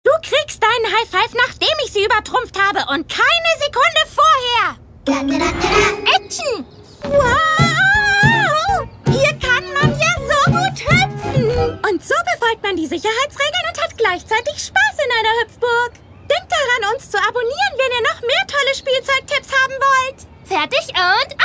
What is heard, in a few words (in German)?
Synchron; TotalDramaRama